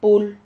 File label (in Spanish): "pul", Silabas